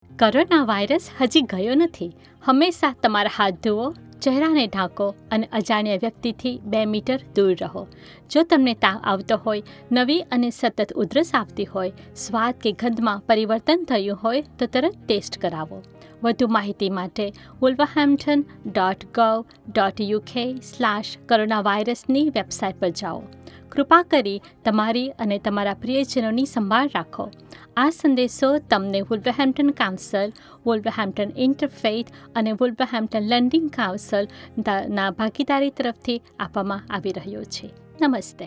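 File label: Gujarati; COVID Messages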